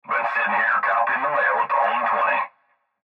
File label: Cb Trucker Radio; Cb Radio Male Been Sitting Here Copying; Radio Voice; Blastwave